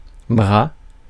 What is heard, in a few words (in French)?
"bras", francais